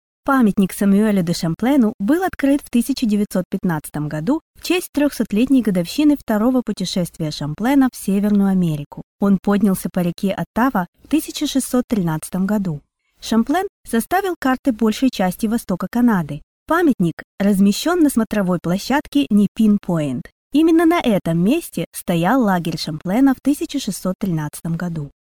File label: Tourist guide